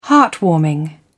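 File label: "heartwarming", British English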